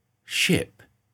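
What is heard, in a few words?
"ship", English pronunciation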